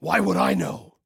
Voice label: Team Dont Know, Male